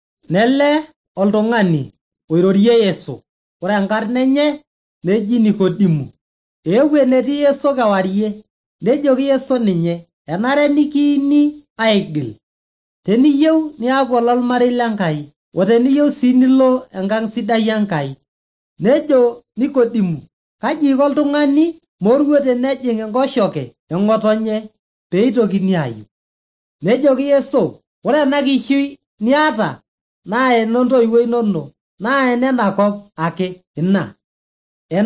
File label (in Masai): maasai bsv nt drama; good news; bible